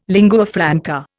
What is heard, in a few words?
"lingua franca", Indian